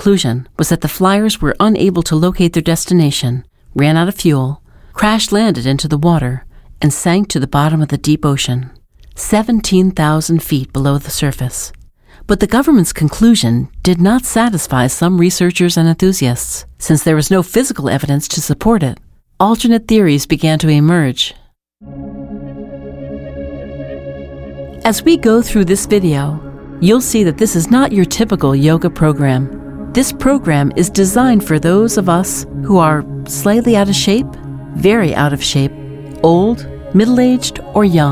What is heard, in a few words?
narration, female voice over